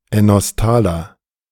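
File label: "enostaler", German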